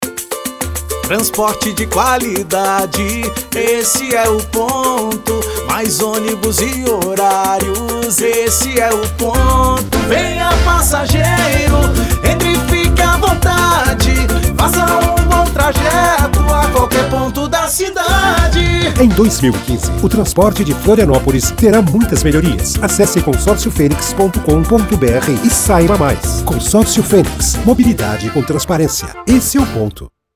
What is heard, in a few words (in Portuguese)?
jingle da campanha para radio